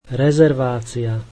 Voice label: slovak reservation